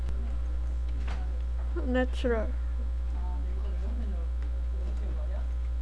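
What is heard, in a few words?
"natural", spoken word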